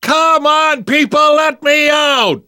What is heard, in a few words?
call for rescue, survivor voice; Left 4 Dead 2; left4dead2 dlc3